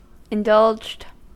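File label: "indulged", US English; pronunciations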